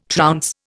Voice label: "trounce", Indian